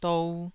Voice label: "dou2", taiwanese syllable

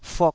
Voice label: "fuk2", taiwanese syllables

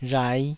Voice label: "rhai5", taiwanese

